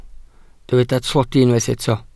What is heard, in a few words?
"tlodi", Welsh